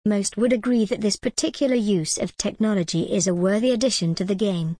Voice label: en gb most would agree that this particular use of technology is a worthy addition to